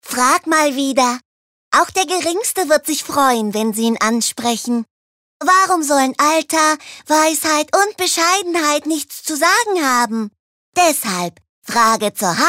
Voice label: Charge Comic

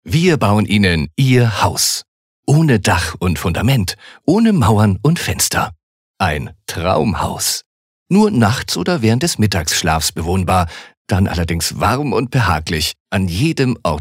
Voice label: Werbung Freundlich

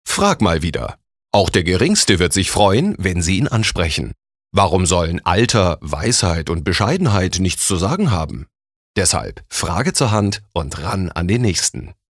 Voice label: Werbung Freundlich

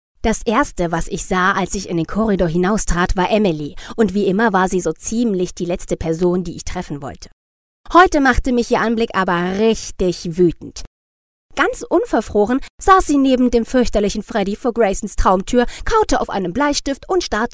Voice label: Lebendig; Fremdtake

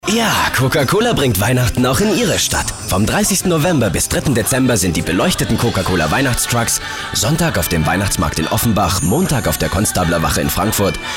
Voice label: Werbung Dynamisch

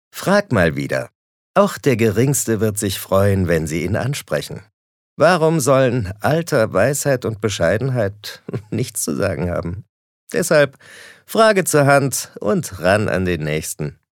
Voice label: Werbung Frech

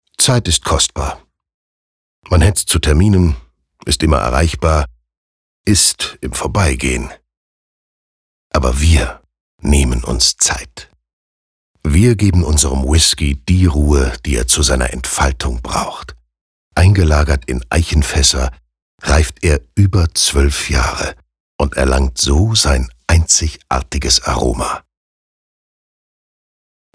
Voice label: Sanft; OldMacKanzie

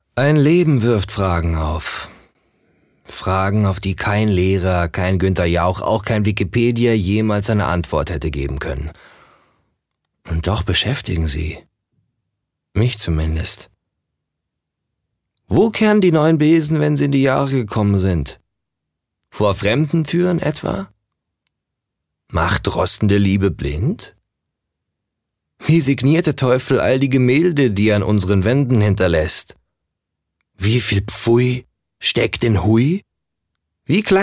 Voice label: Schauspiel, Rau

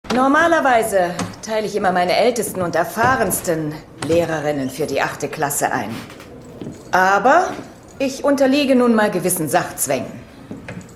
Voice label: Dynamisch; Fremdtake

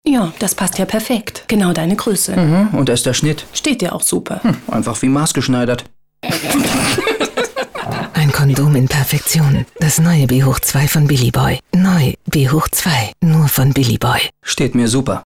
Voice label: Fremdtake Sanft

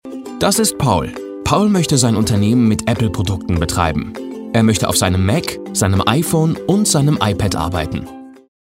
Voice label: Fremdtake Freundlich

